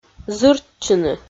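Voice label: "зыртчыны", Komi, female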